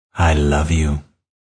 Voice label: I Love You, Male; Talking Sound Effects